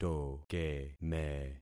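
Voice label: "tokeme", concatenated syllables; Affixing experiment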